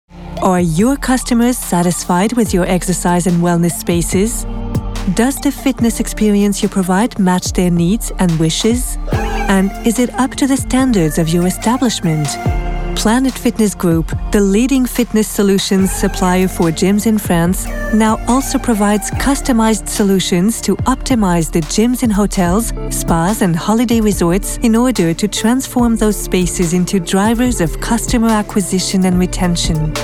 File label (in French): Film promotionnel, voix off extraits anglais; US Planet Fitness Group